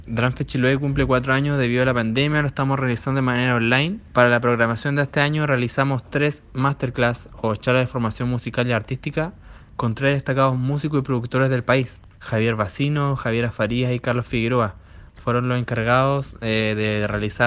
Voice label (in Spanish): Cuña; Drumfest